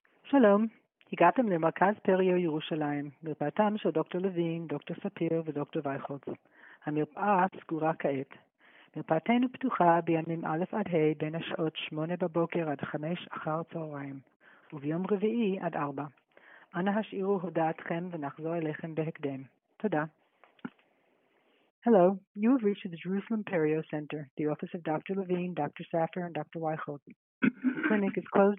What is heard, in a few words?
call recording